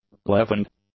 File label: "leavened", english; adjectives